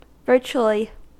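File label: "virtually", American English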